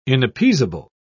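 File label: "inappeasable", British English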